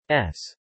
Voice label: "S", male

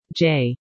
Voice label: "J", female

